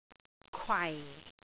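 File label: "kwai4", Cantonese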